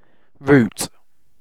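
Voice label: "route", British English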